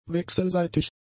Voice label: "wechselseitig", German; adjectives

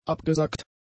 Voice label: "abgesackt", German; adjectives

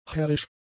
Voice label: "herrisch", German; adjectives

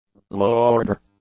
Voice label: "low-order", English; adjectives

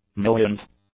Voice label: "millionth", English; adjectives

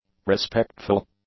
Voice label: "respectful", English; adjectives

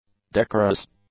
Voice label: "decorous", english; adjectives

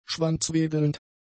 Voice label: "schwanzwedelnd", German; adjectives

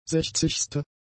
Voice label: "sechzigste", German; adjectives

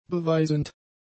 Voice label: "beweisend", German; adjectives

